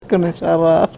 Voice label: "kunish-araar", karuk